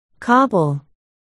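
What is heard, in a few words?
"cobble", spoken word, articulation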